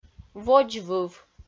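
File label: "водзвыв", Komi, female; audio dict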